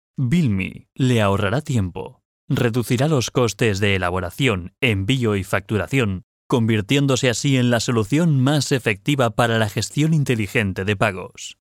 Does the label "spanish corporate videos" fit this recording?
Yes